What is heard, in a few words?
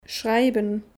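"schreiben", German; Kapitel 2; Alphabet erste Schritte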